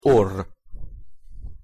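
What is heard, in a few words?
"orr", Hungarian pronunciation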